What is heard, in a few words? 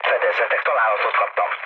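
Hungarian aircraft damaged mood low, speech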